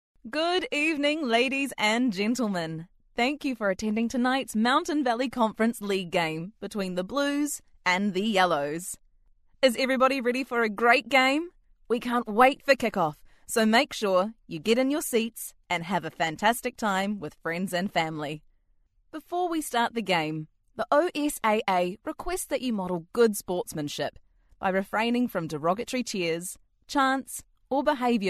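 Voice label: New Zealand, female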